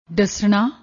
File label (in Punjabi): "ਡੱਸਣਾ", Punjabi